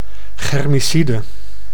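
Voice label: "germicide", Dutch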